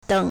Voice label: "deng3", Chinese